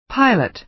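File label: "pilot", American English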